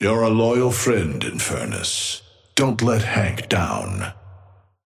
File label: Patron male ally inferno start; Amber Hand voice line - You're a loyal friend, Infernus. Don't let Hank down.